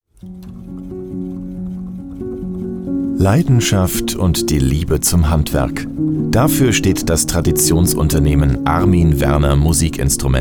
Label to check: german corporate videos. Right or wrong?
Right